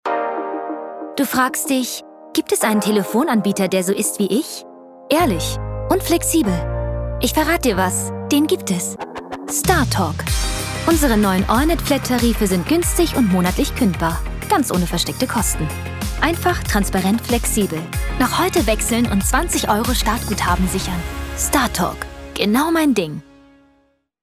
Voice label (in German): Werbung; Startalk